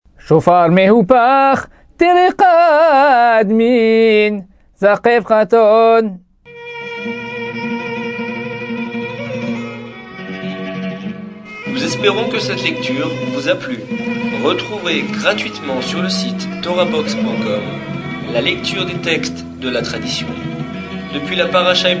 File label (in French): apprentissage taamim selon le rite constantinois